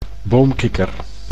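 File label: "boomkikker", Dutch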